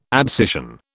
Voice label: "abscission", British